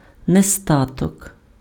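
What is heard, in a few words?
"нестаток", Ukrainian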